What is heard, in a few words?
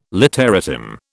"literatim", British